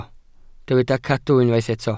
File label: "cadw", Welsh